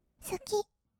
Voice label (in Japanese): "すきっ", kawaii voice; 1200 kawaii voice!!; 色々なワンショット